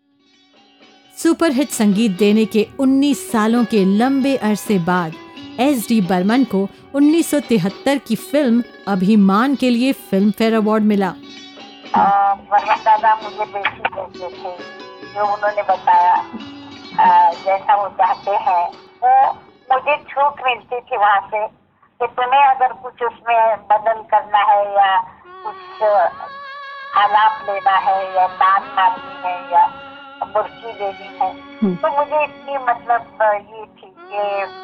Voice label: voice over